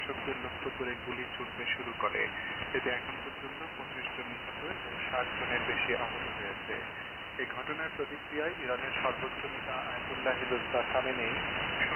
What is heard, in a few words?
Voice of Iran SAM 6khz BW; RSPduo; G33DDC; IRIB; 7425khz